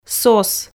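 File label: "сос", Komi, female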